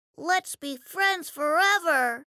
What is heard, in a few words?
foreigner; 少年のキャラクター